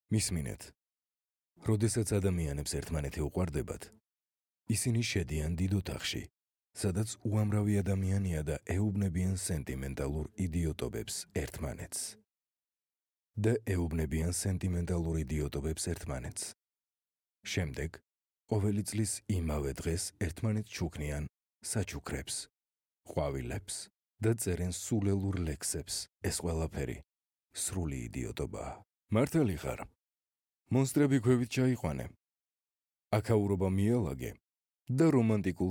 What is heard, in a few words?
Georgian